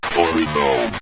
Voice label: story Mode, Synth Voice; Kenney's Sound Pack